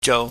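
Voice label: "jou", Cantonese, low tone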